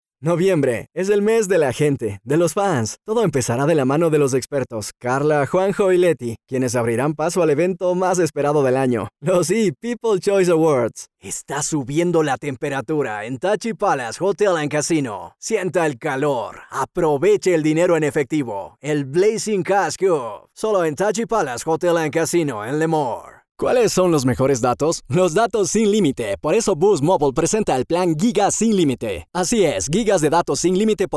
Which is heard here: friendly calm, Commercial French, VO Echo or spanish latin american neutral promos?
spanish latin american neutral promos